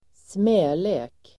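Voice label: "smälek", Swedish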